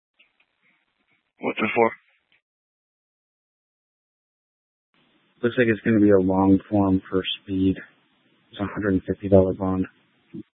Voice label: Police Dispatch; Paradise Valley Police; Simulcast H- Scottsdale

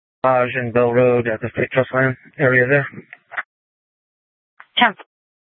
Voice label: Sheriff, Maricopa County P25; Maricopa County (P25) - Thompson Peak; Shrf Lakes

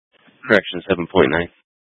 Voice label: Phx PD Fire Dispatch; RWC

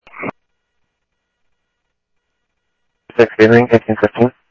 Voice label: scanner audio; Thompson Peak; DPS CI-Ctrl

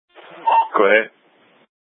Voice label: Light Rail Ops, scanner; Light Rail Ops 1

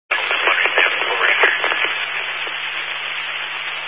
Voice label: Highway Patrol, Phoenix Metro West Area, scanner

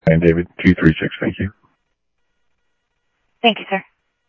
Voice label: Sheriff Central, scanner audio

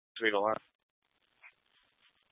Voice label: Fire Departments, scanner; TOPAZ; C4 Gilbert, QC, Superstition; Mesa Simulcast

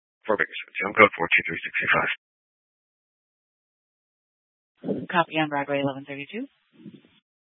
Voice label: Dispatch, scanner; TOPAZ Rgnl Wireless Co-op (TRWC); Mesa PD Dpt; 4 Sprstn Dispatch

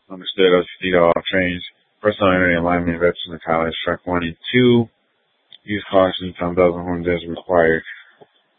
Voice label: Light Rail Ops, scanner; Valley Metro Public Transit System; Regional Wireless Cooperative (RWC) - Simulcast F- Tempe; Light Rail Ops 1

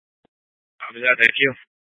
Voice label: Fire Departments Mesa Simulcast; TOPAZ

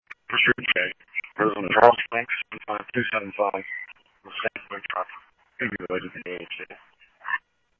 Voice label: Police, scanner; ScottsdalePolice; H-Scottsdale; SCTSDLE PD - PD2

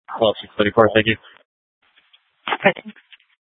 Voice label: Sheriff, scanner P25

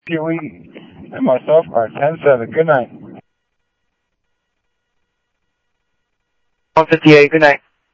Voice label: scanner audio; Thompson Peak; MVD Enforce; ArizonaDepofTran

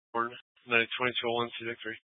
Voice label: FD Dpts VHF 156.240 Patch

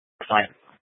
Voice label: Light Rail Emergency, scanner

